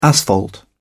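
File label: "asphalt", English, British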